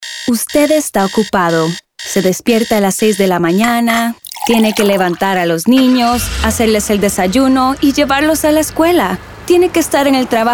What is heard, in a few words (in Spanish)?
Español